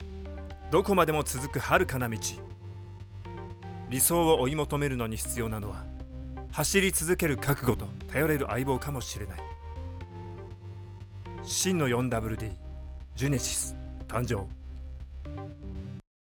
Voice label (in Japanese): ボイスサンプル イケボ風